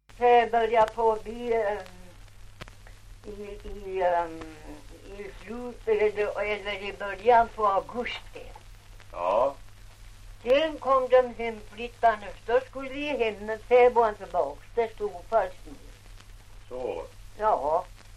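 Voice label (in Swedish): Ovansjö Gästrikland, svenska sagor dialekter; Folkminnen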